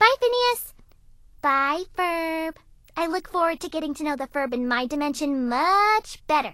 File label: English Voice